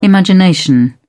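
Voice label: "imagination", British English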